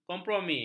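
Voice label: "kompromi", Malay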